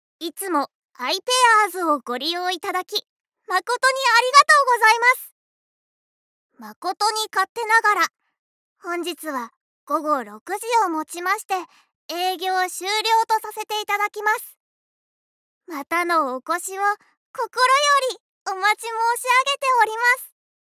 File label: narrator